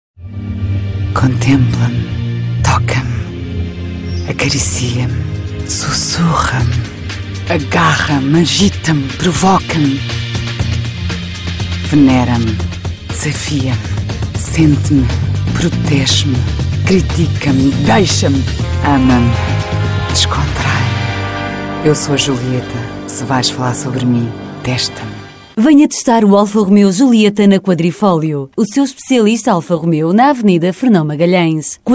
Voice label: spot radio; quadrifolio institucional

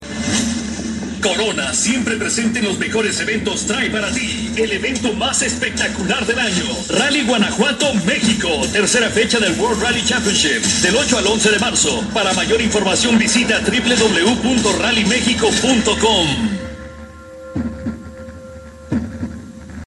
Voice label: anuncio de radio; rally guanajuato mexico 2012

